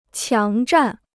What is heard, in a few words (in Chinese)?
"强占", Chinese